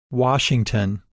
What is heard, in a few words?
"Washington", English pronunciation